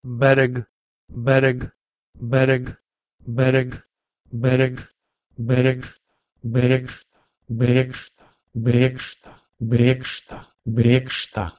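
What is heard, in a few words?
Proto-Indo-European bherh1g to Lithuanian brekSta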